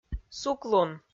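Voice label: "суклун", Komi, female; kpv; audio dict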